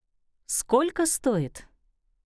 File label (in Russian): "Сколько стоит", Russian; hello in russian